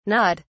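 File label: "gnawed", British English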